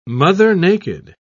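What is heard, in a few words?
"mother-naked", British English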